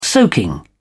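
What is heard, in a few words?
"soaking", British English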